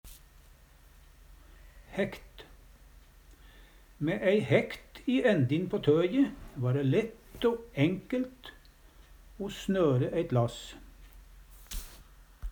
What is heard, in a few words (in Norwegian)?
"hækt", Numedalsmål